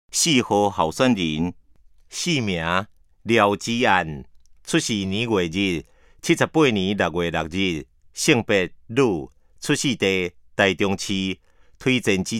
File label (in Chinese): 台語; 臺中市; 有聲公報; 市議員; 第04選區